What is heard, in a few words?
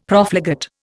"Profligate", Indian; Dictionary